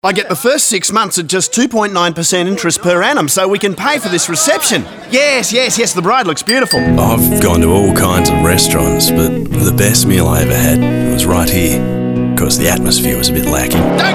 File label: voice acting